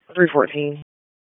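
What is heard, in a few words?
Police Northeast District Dispatch, Raleigh; wake; p25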